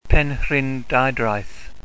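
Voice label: "penrhyndeudraeth", Welsh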